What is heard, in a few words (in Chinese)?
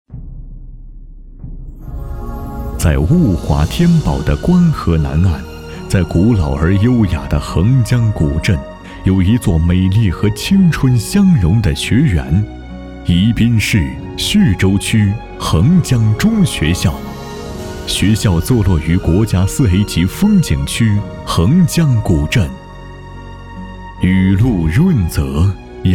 男 宣传